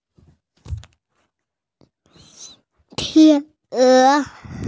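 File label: "tian e" Chinese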